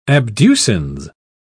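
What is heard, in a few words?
"abducens", British English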